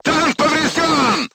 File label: voice message player tank hit, crew tanks russian